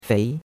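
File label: "fei", Chinese, second tone; Cours de Chinois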